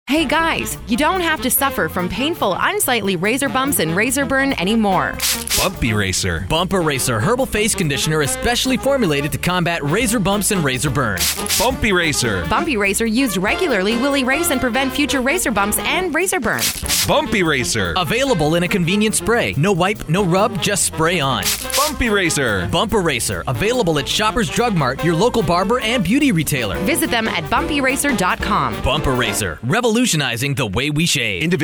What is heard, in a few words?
Radio Commercial; Bump Eraser